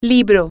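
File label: book, Spanish, female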